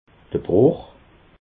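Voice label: "bruch", Bas Rhin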